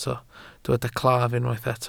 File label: "claf", welsh